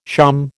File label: "shawm", American